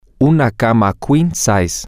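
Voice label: male, spanish; queen bed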